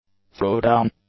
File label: "throwdown", english; nouns